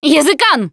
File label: warn smoker, russian; Left 4 Dead 2; Producer; Survivor Voice